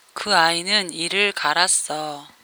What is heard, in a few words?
Ditropic Korean Literal